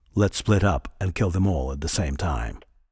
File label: Voice Dialog